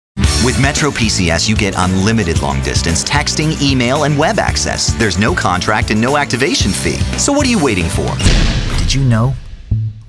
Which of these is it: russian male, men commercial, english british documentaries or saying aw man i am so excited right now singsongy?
men commercial